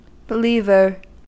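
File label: "believer", US English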